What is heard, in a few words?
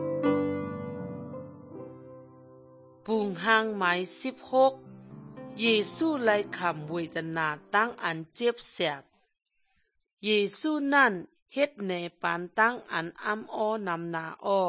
good news shan eastern, drama